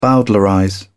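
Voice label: "bowdlerize", English, British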